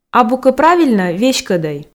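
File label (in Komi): абу кӧ правильнӧ веськӧдӧй, female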